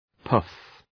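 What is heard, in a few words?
"poof", English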